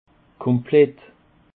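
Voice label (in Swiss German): "komplett", Alsatian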